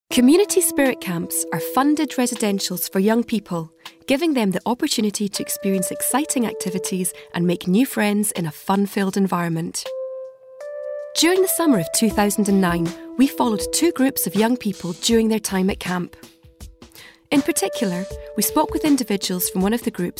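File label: documentary, female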